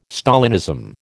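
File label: "Stalinism", American English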